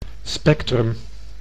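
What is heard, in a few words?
"spectrum", Dutch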